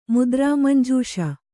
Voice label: "ಮುದ್ರಾಮಂಜೂಷ", kannada